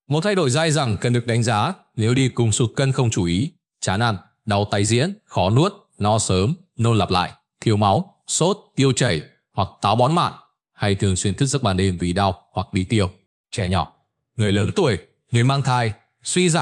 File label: narration